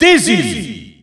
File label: French Announcer; SSBU